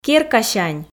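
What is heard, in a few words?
"керкасянь", Komi, female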